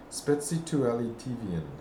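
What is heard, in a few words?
"Spetsitooalitivian", pronunciations; Fourth Way; Beelzebub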